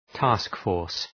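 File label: "task force", English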